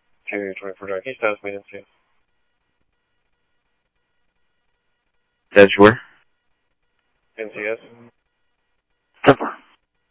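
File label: police department, scanner audio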